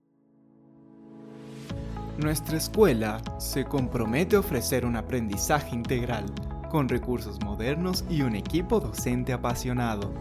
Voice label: Institucional musicalizado, jingle

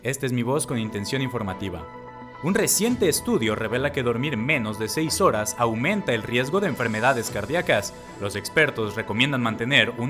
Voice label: informativa, locutor

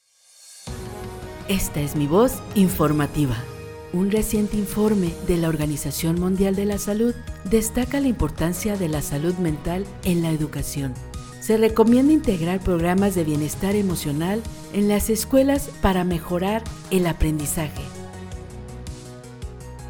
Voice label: Informativa Musicalizado, locutora

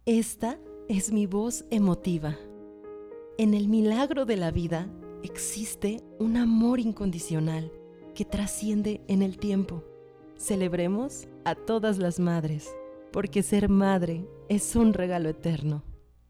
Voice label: Emotiva; locutora

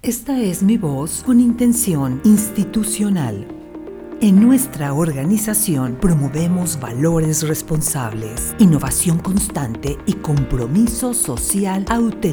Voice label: Institucional Musicalizada

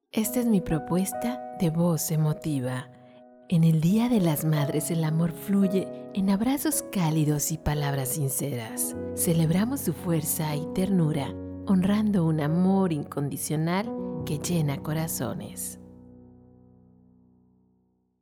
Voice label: Emotiva; locutora

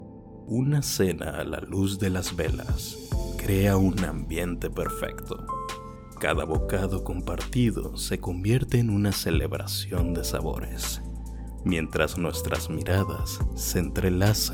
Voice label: Seductora musicalizado, locutor

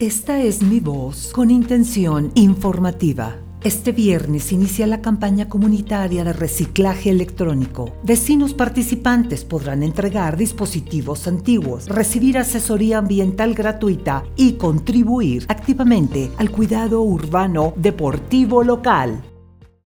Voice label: Informativa Musicalizada

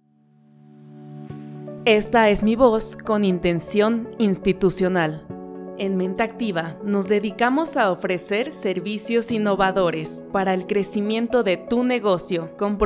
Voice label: Institucional Musicalizado

